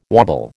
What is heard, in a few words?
"wobble", American